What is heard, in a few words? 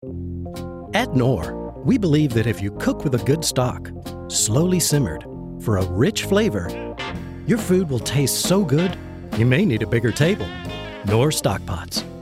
commercial